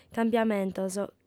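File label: "cambiamentos", Sardinian, female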